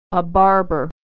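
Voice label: "barber", American English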